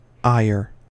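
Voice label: "ire", US English; pronunciations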